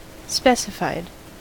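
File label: "specified", US English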